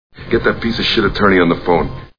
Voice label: attorney on phone; Snakes on a Plane